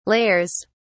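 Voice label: "layers", British English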